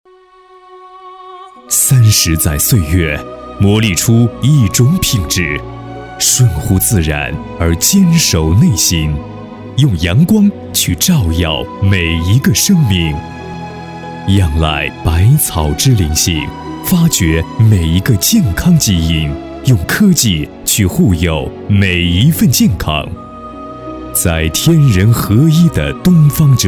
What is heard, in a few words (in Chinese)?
男 专题 大气 抒情; 神威阳光; 中央电视台CCTV8台标声